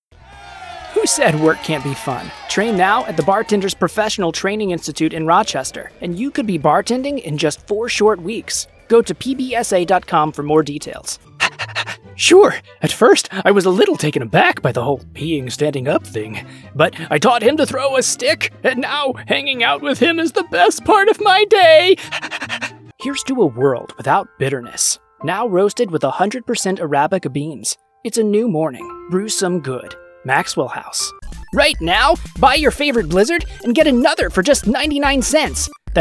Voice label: Commercial VO

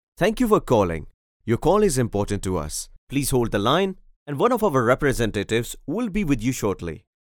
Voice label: English IVR Phone System

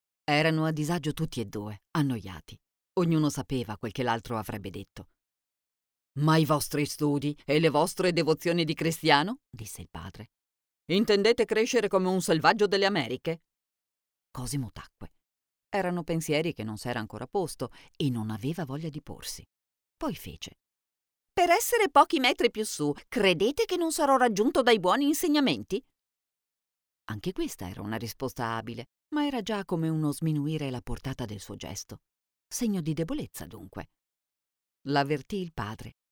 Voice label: Acting

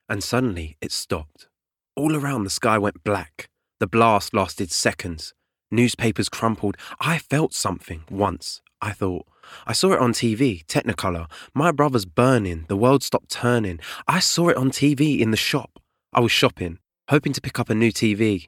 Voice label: Drama and Narration

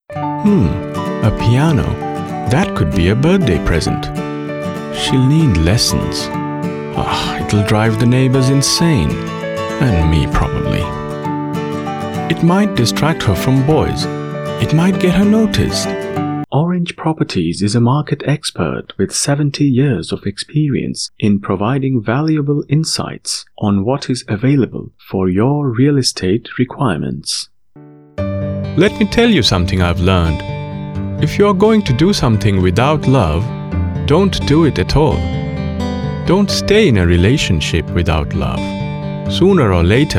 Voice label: English Commercial

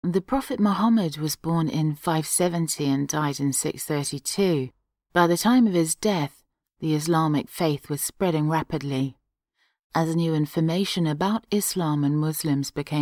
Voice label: AUDIOBOOK excerpt

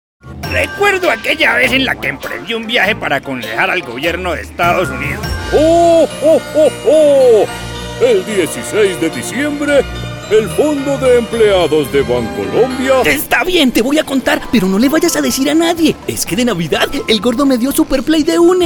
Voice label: CHARACTER

